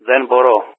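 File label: "unable", Greek; Wingman; Speech; DCS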